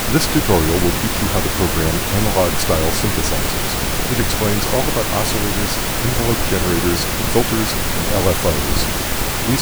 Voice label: speech noise